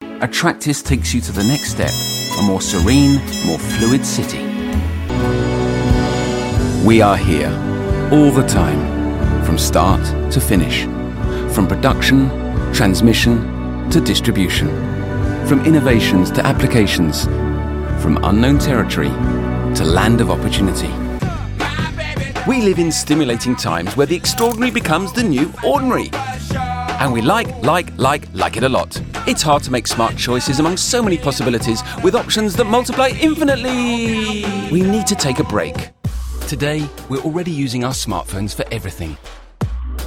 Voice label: Medley Institutionnel

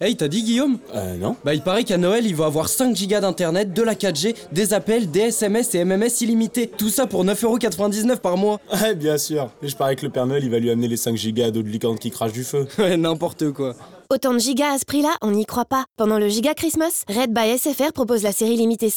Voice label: radio NOEL Hommes; SFR

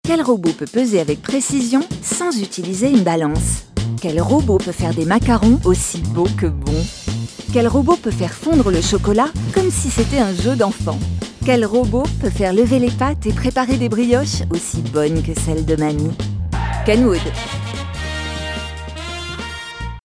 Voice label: pub souriante dynamique; KENWOOD

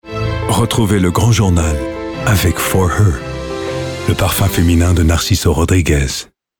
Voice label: VF raffiné grave voix off voice over; Narciso

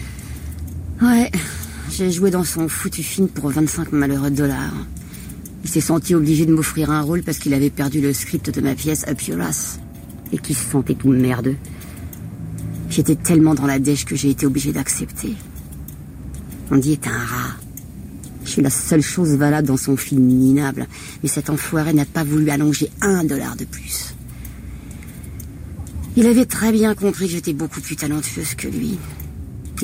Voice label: fiction radio; radio france; valerie solanas

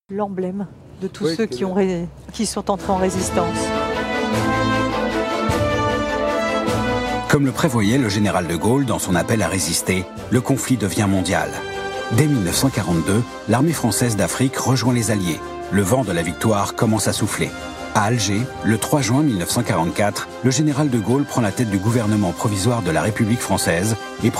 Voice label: Voix Off Historique Ceremonie TV; Defile du 14 Juillet 2020; Hommage General de Gaulle

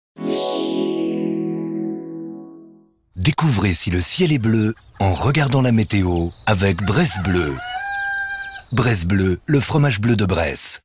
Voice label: naturel simple; BressBleu

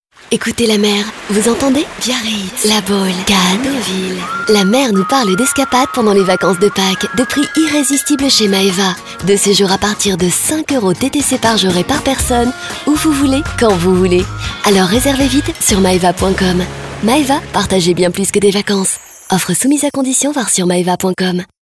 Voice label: promo tranquille ensoleillée; MAEVA

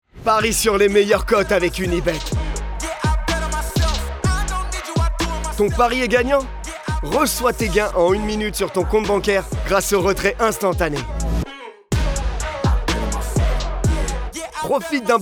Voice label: video 3D voix off; UNIBET

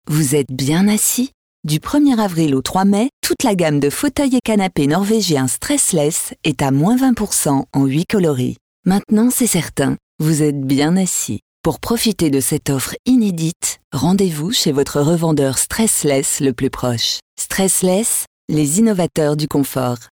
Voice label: pub sensuelle posee; STRESSLESS

